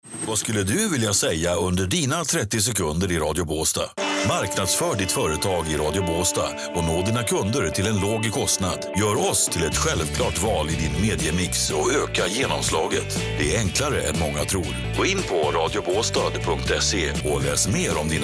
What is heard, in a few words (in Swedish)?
werbung; swe